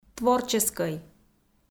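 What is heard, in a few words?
"творческӧй", Komi, female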